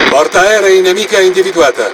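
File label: Speech Detection Enemy Aircarrier, Italian; World Of Warships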